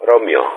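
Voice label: "Romeo", spoken; Ka-50; Alphabet; Romeo-continue; Speech; DCS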